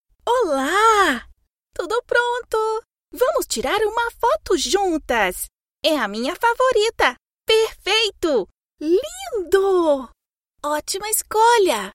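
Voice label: Brazilian Portuguese, female, characters; voice over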